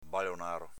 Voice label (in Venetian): "balonaro", Veneto